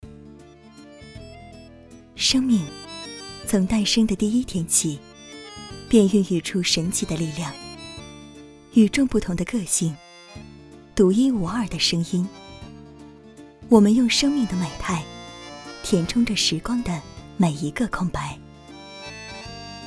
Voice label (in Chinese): 女 品牌广告; 塞伦蒂